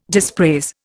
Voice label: "dispraise", Indian

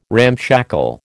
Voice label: "ramshackle", American

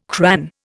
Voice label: "cran", Indian

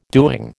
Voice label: "doing", American

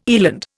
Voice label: "eland", Indian

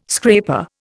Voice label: "scraper", Indian

